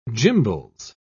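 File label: "gimbals", British English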